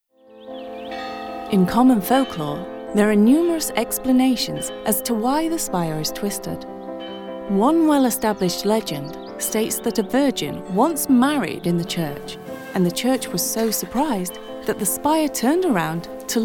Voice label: montage narrative